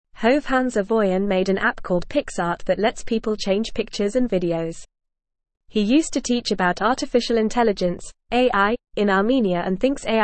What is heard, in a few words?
English FAST Reading; Man Makes App to Change Pictures and Videos